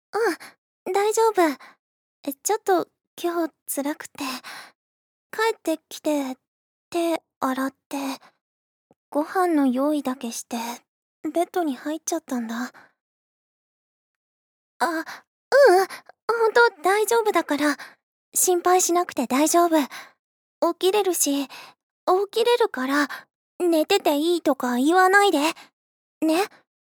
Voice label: voice drama